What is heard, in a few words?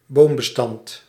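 "boombestand", Dutch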